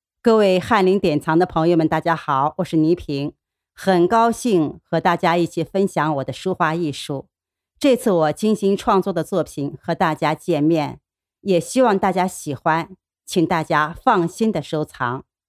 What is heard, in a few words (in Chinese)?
动画 模仿 模仿倪萍音频